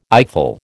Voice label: "eyeful", American